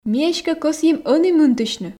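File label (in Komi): ми эськӧ кӧсйим ӧні мынтысьны, Komi, female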